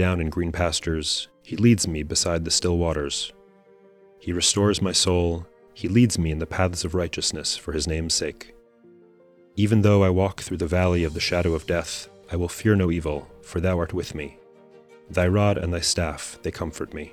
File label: poetry and prayer psalm english